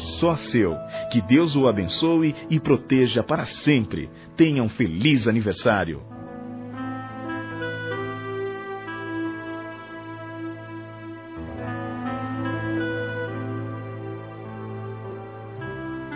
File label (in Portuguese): FILHO CRIANÇA (M)